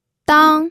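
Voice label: "dang", pinyin, first tone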